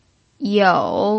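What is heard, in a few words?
"you3", Mandarin Chinese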